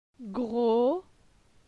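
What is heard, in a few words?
"Gro", French; phonetique; la syllabe o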